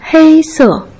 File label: "hei1 se4", Putonghua